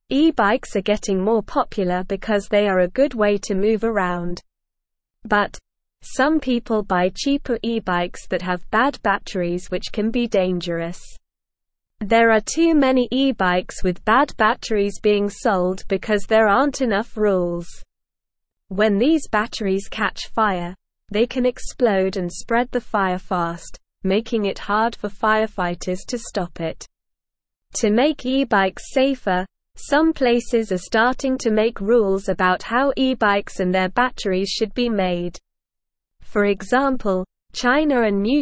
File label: English Lower Intermediate SLOW Reading; E bikes Safe and Fun with Good Batteries